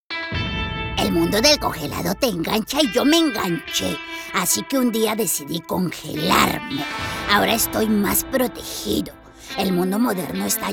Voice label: CARTOON